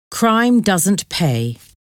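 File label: "crime doesnt pay", English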